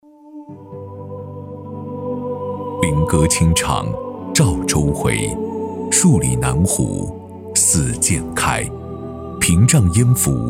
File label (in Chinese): 男 宣传片; 称钩港水系美丽